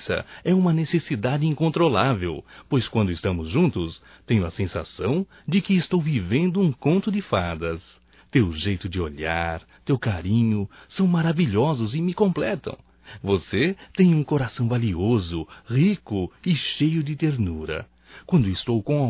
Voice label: ANIV Romântico (M)

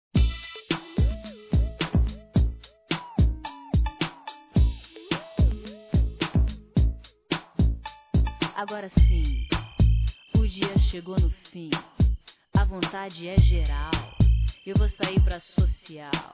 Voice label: FILHO ADOTIVO F; Aniversários; Aniversários diversos; fem

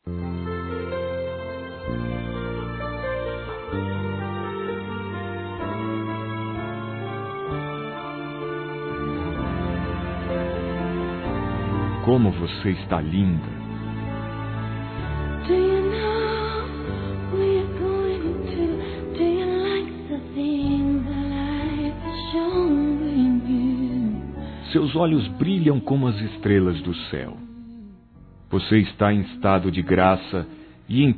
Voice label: PARA QUEM ESPERA UM BEBE, Telemensagens, Maternidade, masc

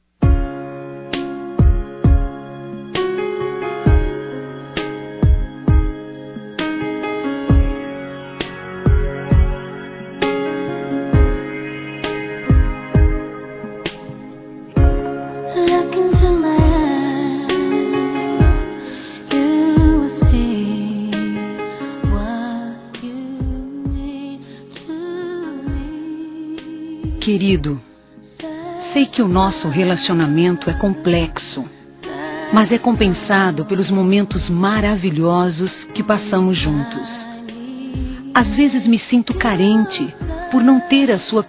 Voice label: Telemensagens Amor Fem; Telemensagens 2017

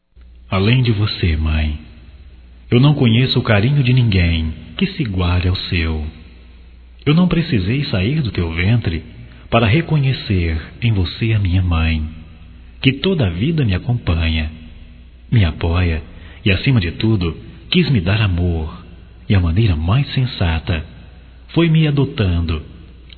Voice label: Homenagem a Mãe Adotiva Masculino, Só Voz; Telemensagens; Telemensagens 2017

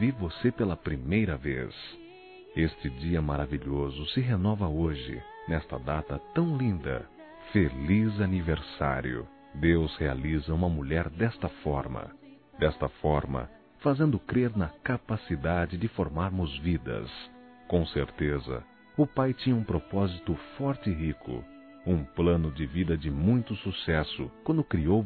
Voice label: Filha Evangélico Masculino; ANIV. Evangelico

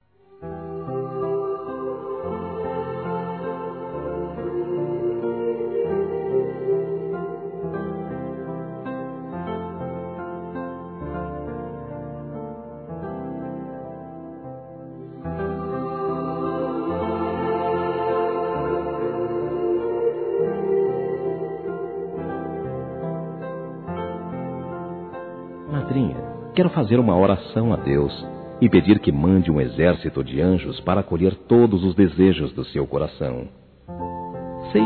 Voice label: Homenagem Madrinha Masculino; Homenagens

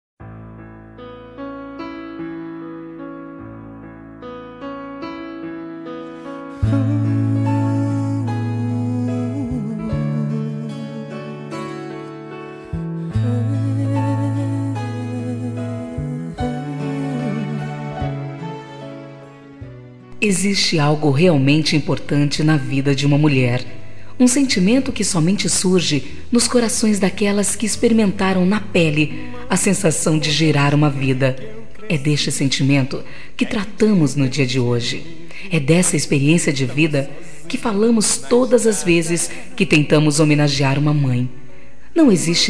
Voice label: D. MÃE GERAL FEMININO Mae; Datas importantes; Dia das mães

